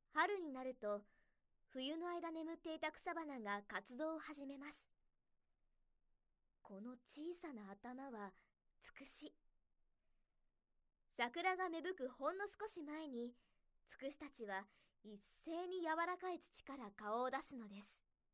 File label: narration